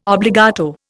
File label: "obbligato", Indian